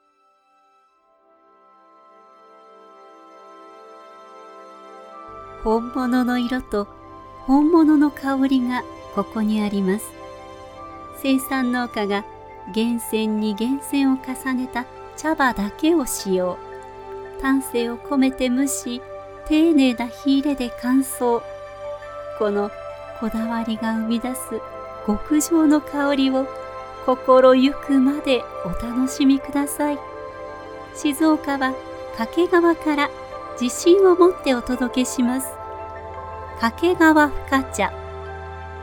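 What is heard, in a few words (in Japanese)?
ボイスサンプル（お茶のCM風）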